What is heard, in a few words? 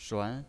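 "shuan", Mandarin Chinese pinyin, second tone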